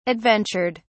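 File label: "adventured", British English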